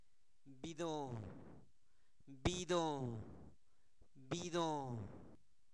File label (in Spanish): tornado, Zapoteco, santo domingo albarradas; fenomenos naturales; INALI